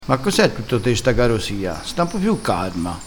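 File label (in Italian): "garosia", vocabolario dialettale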